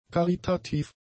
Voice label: "karitativ", German; adjectives

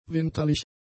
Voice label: "winterlich", German; adjectives

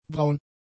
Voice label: "braun", German; adjectives

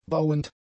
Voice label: "bauend", German; adjectives

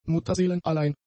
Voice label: "mutterseelenallein", German; adjectives

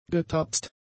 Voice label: "getapst", german; adjectives

